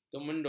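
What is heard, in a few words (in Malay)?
"kemendur", Malay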